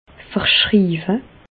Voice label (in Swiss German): "verschriiwe", Alsace, Bas Rhin; medecine; prescrire